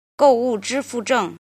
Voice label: "jin", Chinese